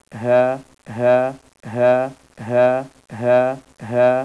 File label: haa, Arabic